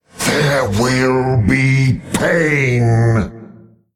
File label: Male Qiraji Attack